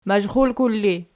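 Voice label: "mazhrulkul" ergative, Archi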